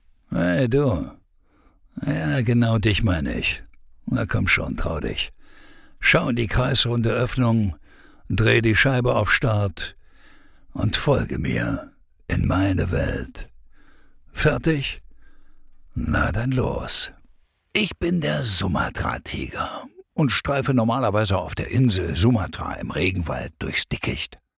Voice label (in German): Doku; LOFT VOICES